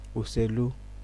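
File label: "uselu", Nigerian Pidgin, male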